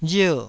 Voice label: "rier4", taiwanese